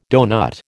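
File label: "doughnut", American English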